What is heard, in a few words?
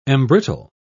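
"embrittle", British English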